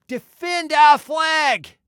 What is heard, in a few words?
Defend Flag, Male